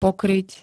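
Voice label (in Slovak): "pokryť", Slovak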